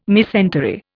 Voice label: "mesentery", Indian